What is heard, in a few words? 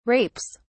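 "rapes", British English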